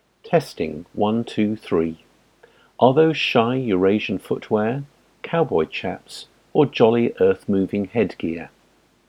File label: test mobile headset